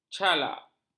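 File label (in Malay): "calak", Malay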